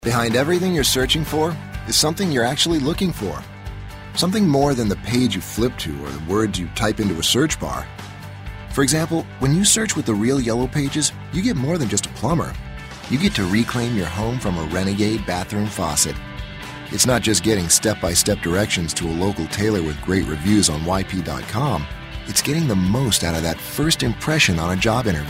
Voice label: Conversational